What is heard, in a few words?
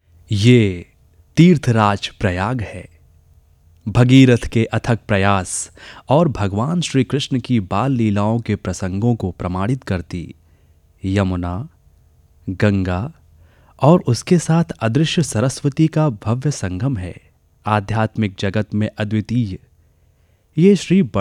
narration hindi